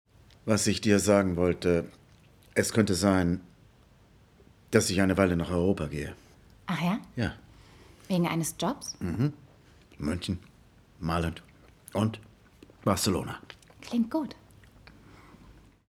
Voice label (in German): DE Synchron